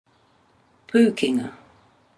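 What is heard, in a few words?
"pukenga", Māori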